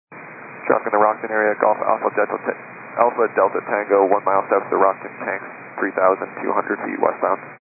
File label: 122 925MHz, scanner radio